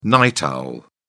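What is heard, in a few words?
"night owl", English, British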